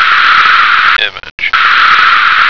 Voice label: "image", American